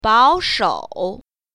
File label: "bao3shou3", Mandarin Chinese Tone Pair Drills; 保守